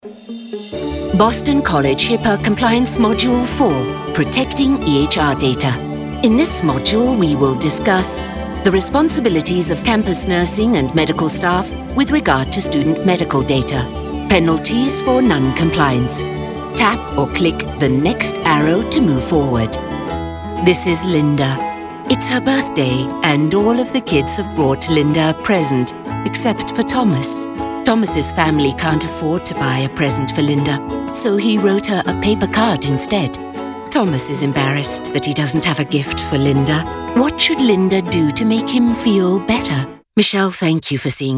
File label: English eLearning